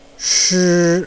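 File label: "shi", Chinese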